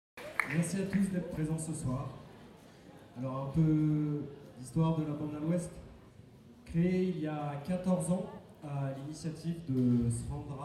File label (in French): presentation